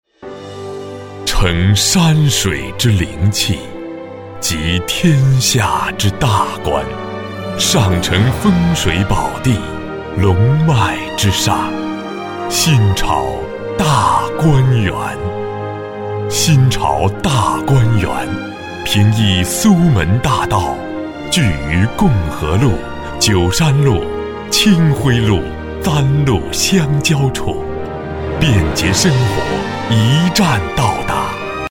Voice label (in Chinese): 广告 地产 优雅, 男国; 新潮大观园